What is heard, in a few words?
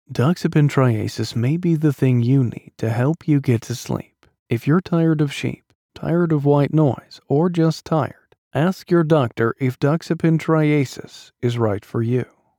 Calm Drug ad, conversational, vibrant, strong Male Voice Over